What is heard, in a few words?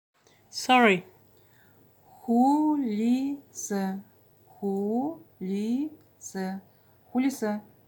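"Sorry", Buryat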